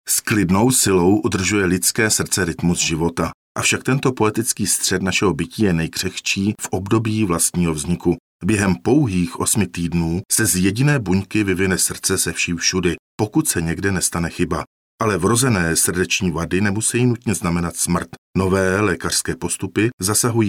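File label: male Czech documentary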